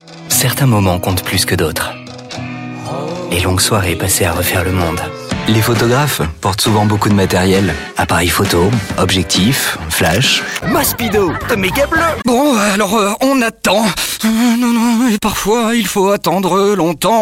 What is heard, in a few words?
Voice french male; Decibel